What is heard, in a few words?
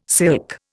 "silk", Indian